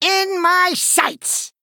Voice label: Male Gnome Attack